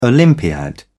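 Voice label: "olympiad", British English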